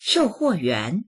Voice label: "shou4huo4yuan2", voices; shòuhuòyuán; 售货员; 店員、売り子

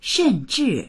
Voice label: "shen4zhi4", voices; 甚至; …さえ；甚だしきにいたっては

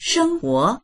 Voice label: "sheng1huo2", voices

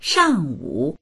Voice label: "shang4wu3", voices; 上午; 午前

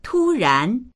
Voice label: "tu1ran2", voices

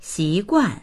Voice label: "xi2guan4", voices; 习惯; 習慣

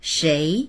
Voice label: "shei2", voices; 谁; 誰（の）、誰か

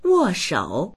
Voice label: "wo4shou3", voices; 握手; wòshǒu; 握手する

